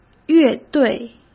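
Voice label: "乐队", Mandarin Chinese; chinese flashcards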